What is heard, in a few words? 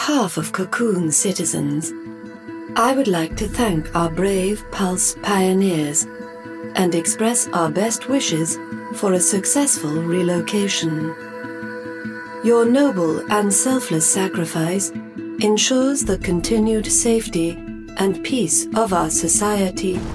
Robot